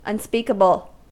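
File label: "unspeakable", American English; pronunciations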